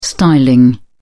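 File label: "styling", English, uk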